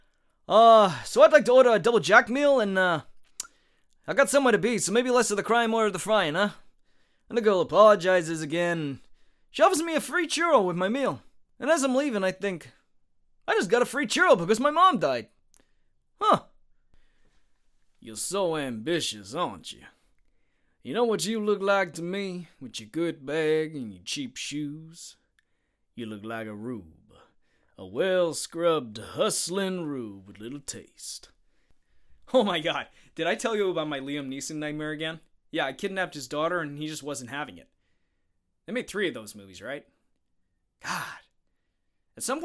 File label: American accent; reel; VOICEFOX